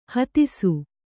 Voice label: "ಹತ್ತಿಸು", Kannada

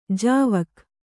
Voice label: "ಜಾವಕ್", Kannada

